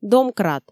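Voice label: "домкрат", Komi, female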